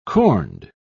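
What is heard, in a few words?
"corned", British English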